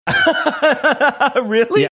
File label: laughing saying really